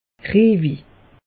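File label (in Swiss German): "riewig", Alsatian; meteo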